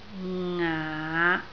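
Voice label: "ngaa5", Cantonese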